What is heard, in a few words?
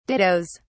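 "dittos", British English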